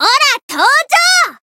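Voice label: Voice chant, Japanese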